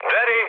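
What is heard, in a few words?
Serbian enemy detected mood med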